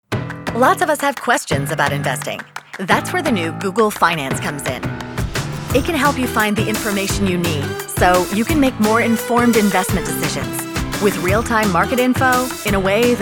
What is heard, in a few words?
Commercial